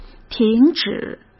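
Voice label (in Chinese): "停止", Mandarin Chinese; chinese flashcards; hsk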